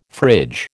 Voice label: "fridge", American